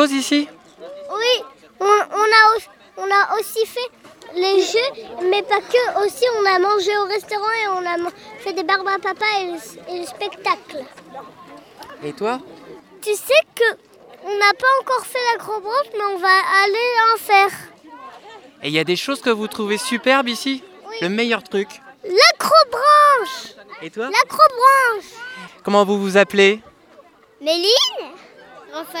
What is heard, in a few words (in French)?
Petit train Enfants Microtrottoir Guinguettes